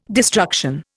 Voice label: "destruction", Indian

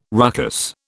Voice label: "ruckus", British

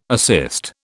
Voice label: "assist", British

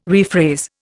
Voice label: "rephrase", Indian

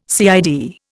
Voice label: "CID", Indian

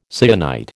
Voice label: "syenite", American

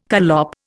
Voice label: "collop", Indian

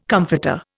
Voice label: "comforter", Indian

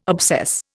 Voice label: "obsess", Indian

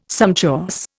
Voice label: "sumptuous", Indian

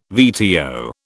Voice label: "VTO", British

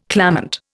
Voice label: "clamant", Indian

